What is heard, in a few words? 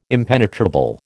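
"impenetrable", American English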